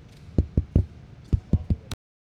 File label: Male Radio; NYCC 2013